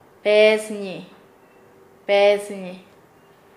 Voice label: "regalado", Nasa Yuwe; paez